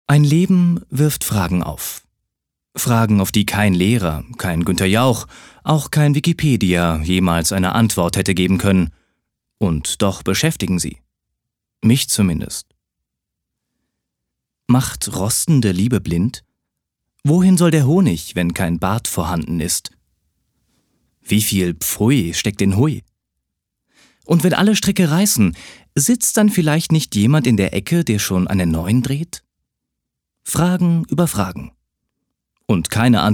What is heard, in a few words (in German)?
Schauspiel Neutral